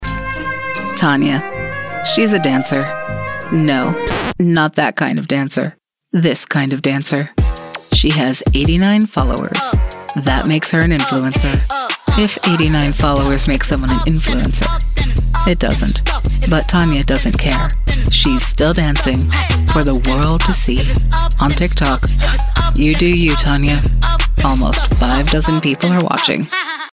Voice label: TIK TOK Flat Millennial

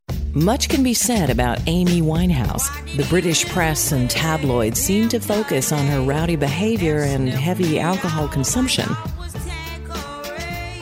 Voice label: NARRATION

